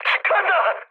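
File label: Korean player killed enemy mood high, speech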